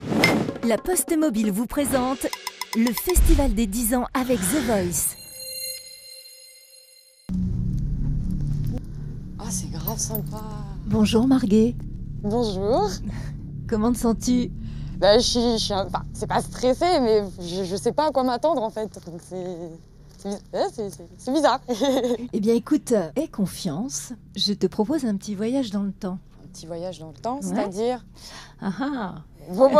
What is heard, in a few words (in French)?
Voix off; THE VOICE; La Poste Mobile